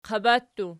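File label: "qaba" plural, Archi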